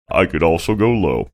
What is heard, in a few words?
saying i could also go low deep voice